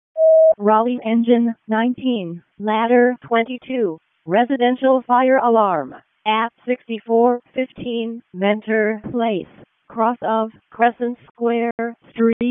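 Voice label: Raleigh Fire Department ALERT, p25; wake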